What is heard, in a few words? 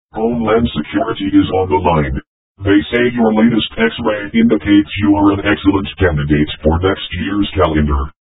Robotic Voice